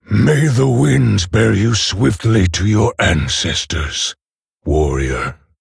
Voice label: Male Orc Ogre